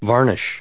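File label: "varnishes", US English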